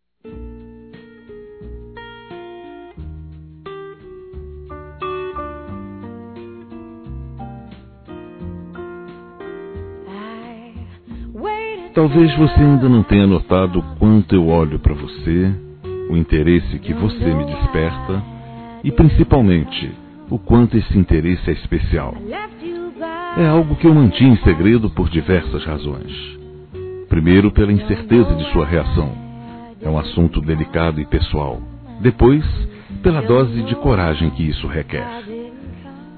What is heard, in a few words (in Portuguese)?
Conquista Romantica Forte Masculino; Telemensagens; Conquistas Cantadas paqueras; Telemensagens 2017